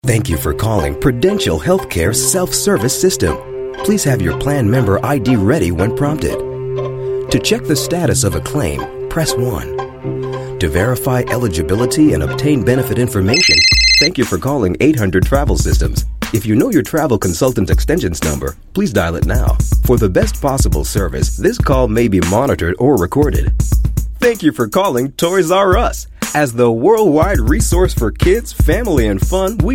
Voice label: US English Voice

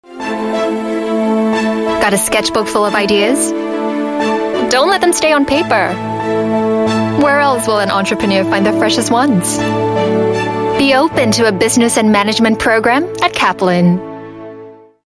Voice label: Asian English; Kaplan Chase your Dreams

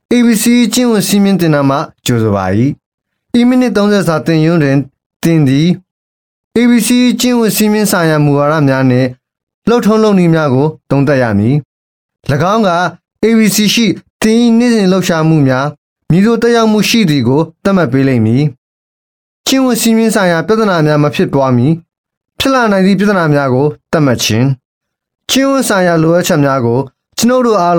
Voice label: Male Burmese E-Learning

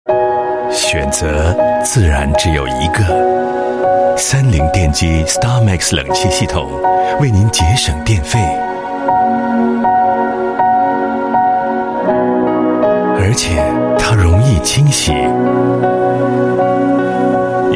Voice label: Mandarin, Male; Mitsubishi Starmex; Chinese ZHCN

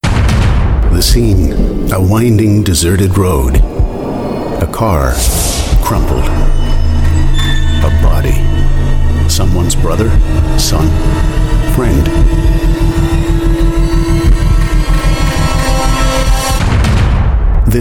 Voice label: Commercial US English

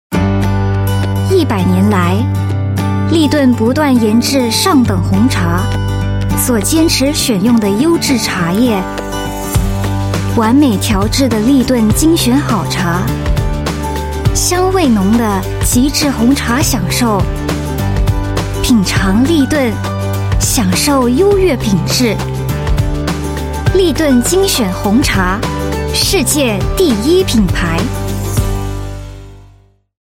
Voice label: Mandarin

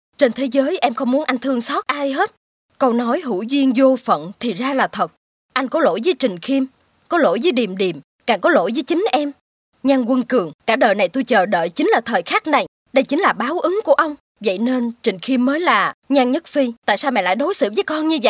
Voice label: Vietnamese Female South Movie Trailer

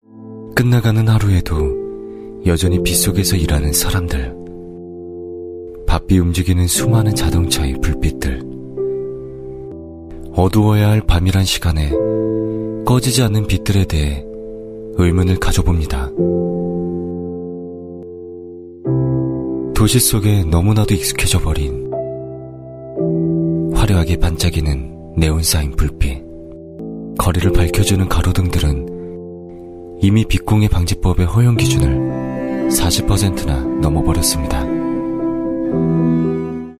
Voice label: Korean Thoughtful Read

